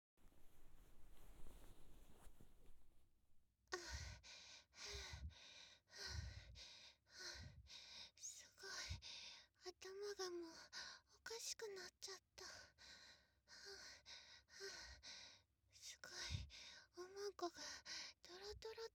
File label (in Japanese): あまあま事後トーク